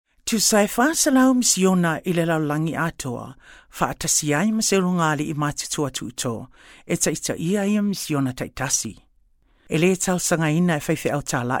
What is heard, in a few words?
Samoan, narration